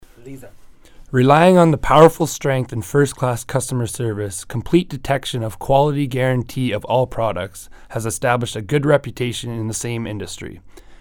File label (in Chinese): 外籍; 洪钜硅胶制品有限公司